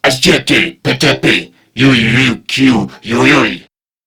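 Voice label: Patrol Crewmen, English; Warframe; CorpusCrewmen; CrewmanA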